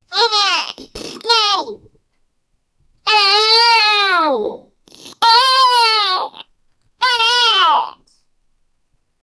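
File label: gibberish with normal male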